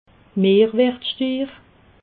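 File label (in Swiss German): "mehrwertstiir", Alsatian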